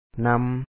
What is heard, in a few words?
"ນຳ", Lao; na2